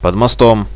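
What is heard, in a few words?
under the bridge, Russian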